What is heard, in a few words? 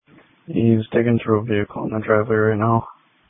Police Tactical Encrypted